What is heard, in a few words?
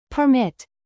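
"produce", verb, British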